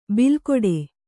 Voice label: "ಬಿಲ್ಕೊಡೆ", Kannada